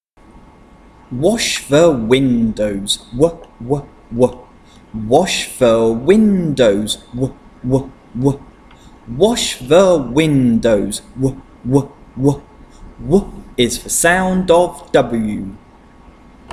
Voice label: Consonant Chant w